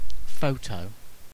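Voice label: "photo", British English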